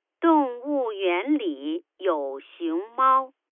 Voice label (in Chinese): panda phone, mandarin; angelsound